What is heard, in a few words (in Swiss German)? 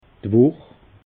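"wuch", Alsatian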